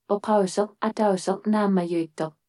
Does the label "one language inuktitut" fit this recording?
Yes